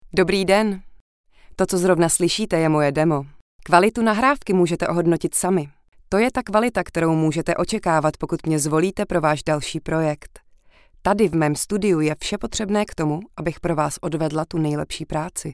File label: czech female